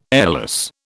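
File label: "airless", British English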